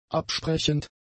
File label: "absprechend", German; adjectives